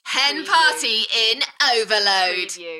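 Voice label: British female Hen party in overload Enthusiastic; beanstalk audio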